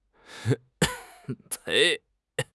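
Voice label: "pei2", Mandarin Chinese; HSK; HSK4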